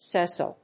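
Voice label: "sessile", English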